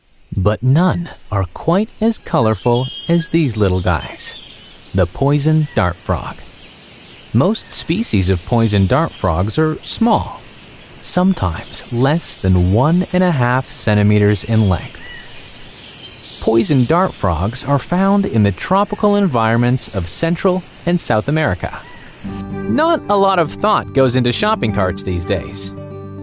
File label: english canadian narration